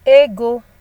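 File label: "ego", Nigerian Pidgin, female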